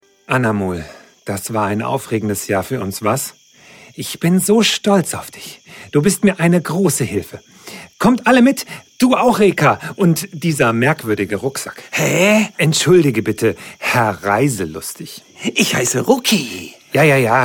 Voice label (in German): Hoerspiel